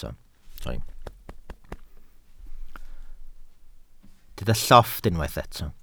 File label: "llofft", welsh